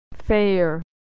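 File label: "fair fare", American English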